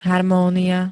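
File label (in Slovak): "harmónia", Slovak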